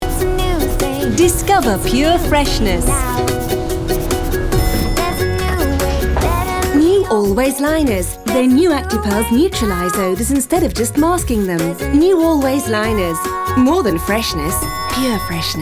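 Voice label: energetic, female; Voice actor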